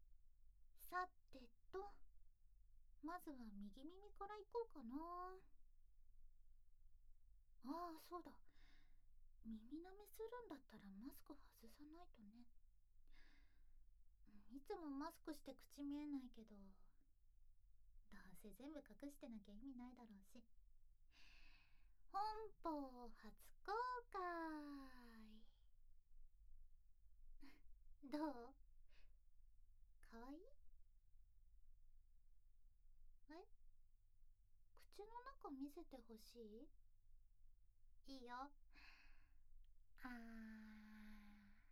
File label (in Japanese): バイノーラルマイクの実力 (耳舐め配信), ASMR; SE無し